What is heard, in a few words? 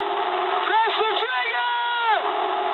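English attack position mood med; War Thunder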